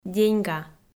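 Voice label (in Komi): "деньга", Komi, female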